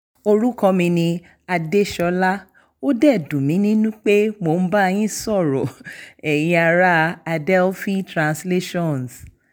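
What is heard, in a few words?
Yoruba, female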